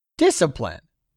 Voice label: "DISCIPLINE", english; 3000 words